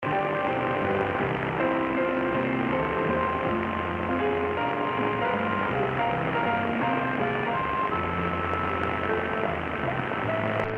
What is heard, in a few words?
Radio Pirat 5820khz; Orion; Sony; Eton